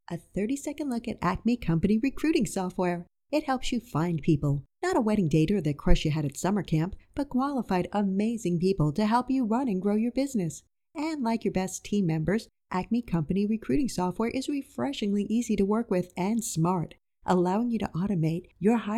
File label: FAST PACED EXPLAINER VIDEO